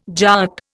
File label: "Jat", Indian